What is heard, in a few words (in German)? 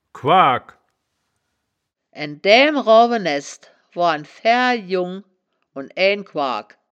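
"quaagk", wittlicher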